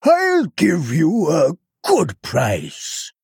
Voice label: male tortollan, Play